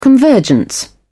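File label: "convergence", British English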